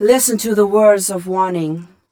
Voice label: fem spo, Vocals